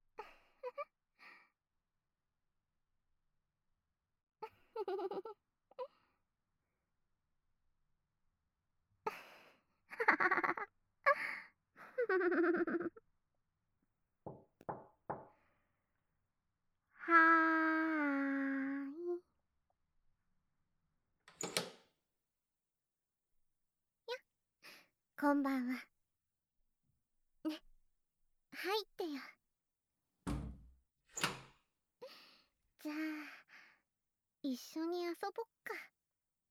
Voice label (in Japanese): 環境音無し, ASMR